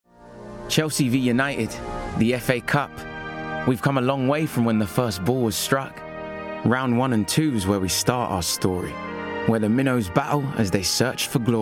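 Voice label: Promo, Male, Assured, Blokey, Bright, Conversational, Friendly, Warm; PROMO REEL; United Voices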